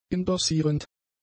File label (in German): "indossierend", German; adjectives